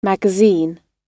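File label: "magazine" spoken, British English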